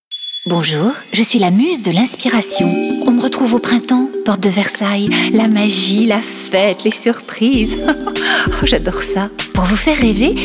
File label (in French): Spot